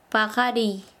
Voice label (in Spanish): "nacer", Quechua Chanka